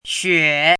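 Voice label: "xue3", Mandarin pinyin syllable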